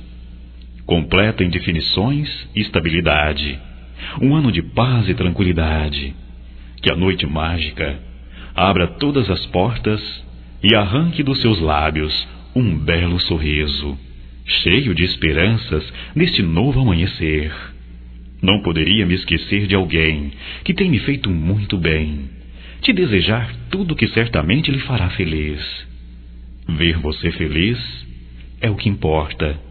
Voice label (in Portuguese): Natal geral, masc